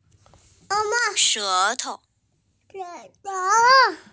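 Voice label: "she tou", Mandarin Chinese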